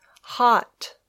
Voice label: "hot", English